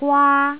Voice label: "gwaa1", Jyutping